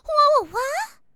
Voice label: confusion

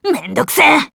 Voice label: annoying; blanc

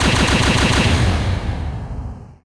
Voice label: guilty

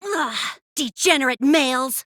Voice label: ugh degenerate male; tenko

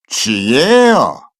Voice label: happy

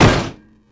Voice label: threaten

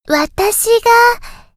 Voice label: blush embarrassed; mary

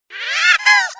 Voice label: angry

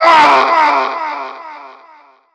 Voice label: pain

